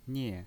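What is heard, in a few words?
"nie" fourth tone, pinyin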